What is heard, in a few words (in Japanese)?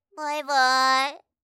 バイバイ(哀); あいさつ系